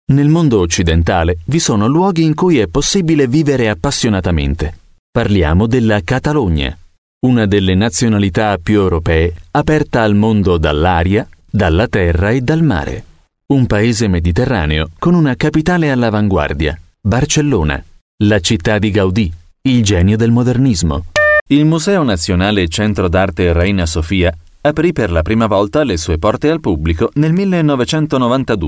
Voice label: italian voice over male narrator